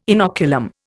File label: "inoculum", Indian